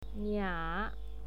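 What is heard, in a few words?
"nia" third tone, pinyin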